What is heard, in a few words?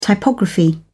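"typography", British English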